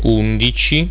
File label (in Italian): "undici", italiaans; getal